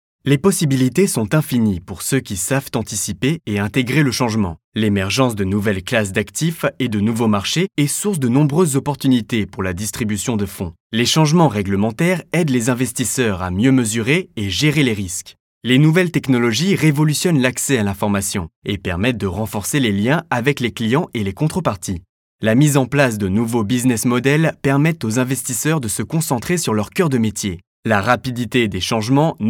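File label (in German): French, Fremdtake Neutral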